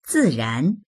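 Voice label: "zi4ran2", Mandarin Chinese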